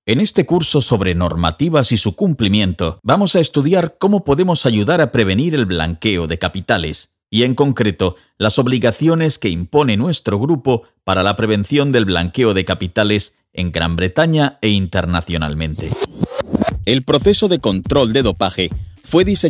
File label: spanish e-learning